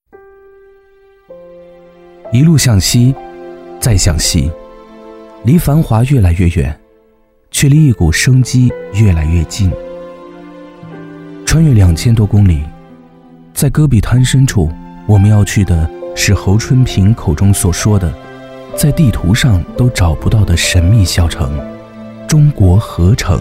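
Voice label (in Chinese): 国语 男声 专题纪录 叙述 大气浑厚磁性 沉稳; 核城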